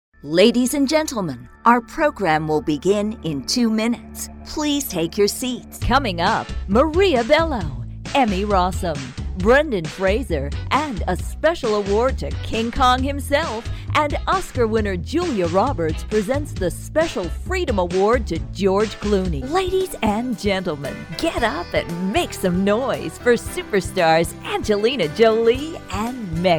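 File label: Live Announcer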